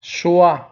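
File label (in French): "choix", French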